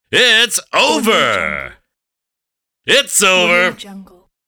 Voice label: sports announcer saying its over